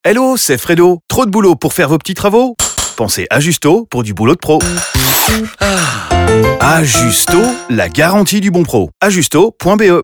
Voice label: Radio FR 10s; Ajusto